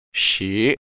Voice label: number ten mandarin

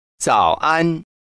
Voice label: good morning mandarin

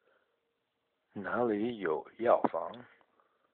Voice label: Where Is A Pharmacy Mandarin

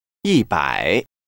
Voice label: number hundred Mandarin

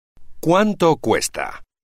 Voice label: how much does it cost spanish

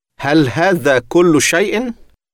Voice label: is that all arabic

